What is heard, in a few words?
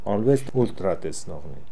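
"Olooessultratesnokhnee", pronunciations; Fourth Way; Beelzebub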